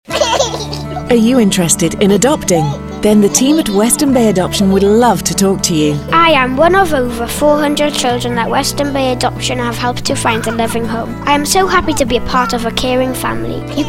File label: English; Western Bay